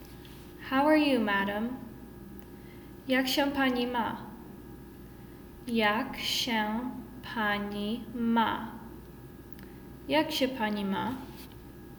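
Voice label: How are you madam, Polish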